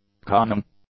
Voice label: "conning", English; adjectives